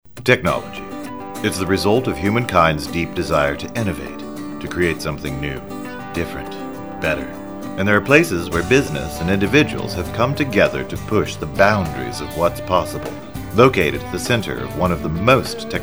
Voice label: with music; voice over demo